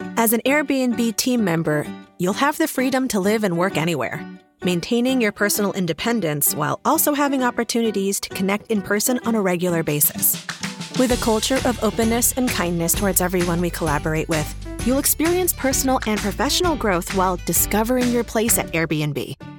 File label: narration; AirBnB